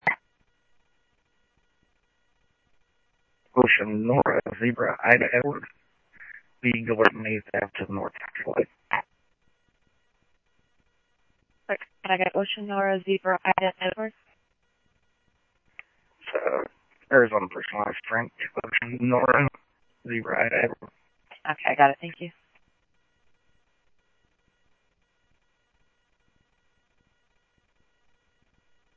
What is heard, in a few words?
Info Sheriff Common, scanner audio